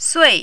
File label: "sui4", Mandarin